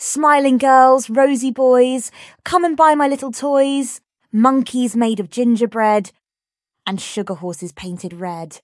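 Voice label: Smiling Girls Rosy Boys, female British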